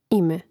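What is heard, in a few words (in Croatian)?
"ime", hrvatski; mreznik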